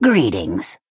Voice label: Argonian female hello